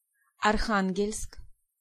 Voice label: "Archangel", Pronunciation

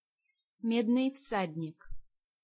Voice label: "Bronze", Pronunciation

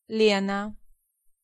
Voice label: "Lena", Pronunciation

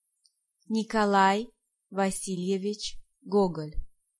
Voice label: "Gogol", Pronunciation

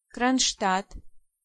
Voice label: "Kronstadt", Pronunciation

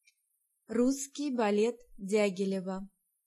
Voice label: Ballet, Pronunciation

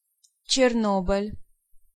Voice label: Chernobyl, Pronunciation

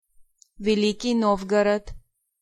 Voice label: "Novgorod", Pronunciation

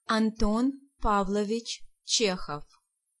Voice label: "Chekhov", Pronunciation

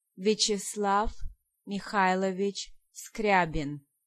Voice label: "Molotov", Pronunciation

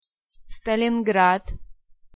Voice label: "Stalingrad", Pronunciation

